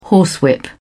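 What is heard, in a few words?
"horsewhip", British English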